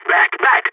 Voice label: radio bot fallback, balkan; vo